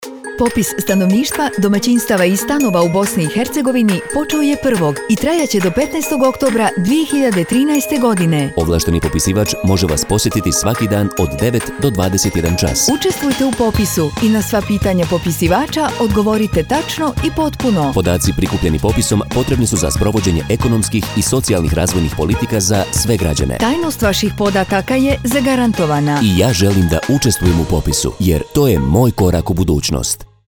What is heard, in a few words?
Radio jingle; Popis; javna kampanja